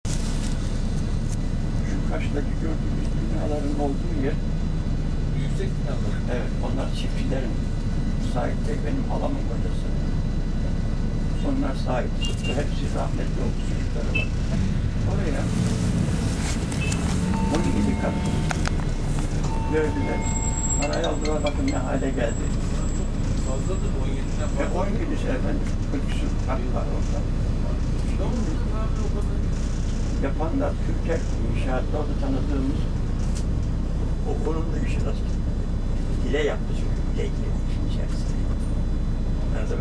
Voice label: mecidiyekoy bus conversation